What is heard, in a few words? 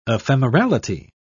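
"ephemerality", English, British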